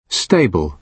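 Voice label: "stable", British English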